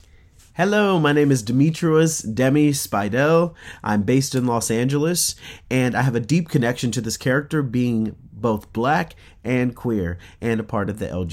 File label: voiceover